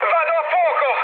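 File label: Italian on fire mood med, speech; War Thunder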